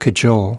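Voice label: "cajole", US English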